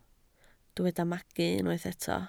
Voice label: "magu", Welsh